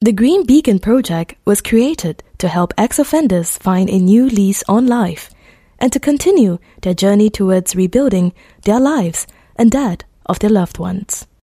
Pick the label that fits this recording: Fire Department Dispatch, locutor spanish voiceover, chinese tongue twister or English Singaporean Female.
English Singaporean Female